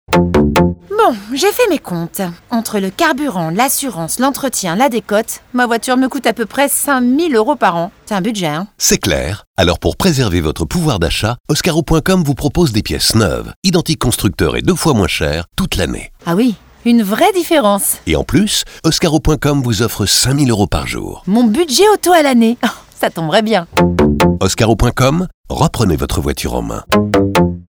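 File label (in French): comedie fille lambda, voix off; Habitat; Brico; Déco; Pub Radio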